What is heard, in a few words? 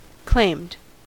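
"claimed", English, US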